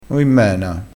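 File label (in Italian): "oimmena", dialettale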